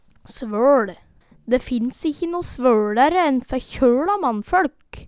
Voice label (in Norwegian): svøL, Numedalsmål